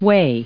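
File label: "way", English, US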